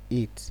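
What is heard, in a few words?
"it", Nigerian Pidgin, male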